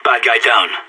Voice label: enemy down, radio; SAS